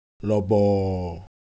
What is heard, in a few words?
Ewe Shape, ideophones